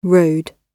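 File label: "road", British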